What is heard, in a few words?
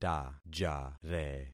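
"tajare", concatenated syllables; Affixing experiment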